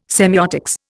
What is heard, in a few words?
"semeiotics", Indian